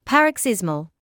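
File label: "paroxysmal", British English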